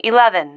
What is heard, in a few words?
"eleven", female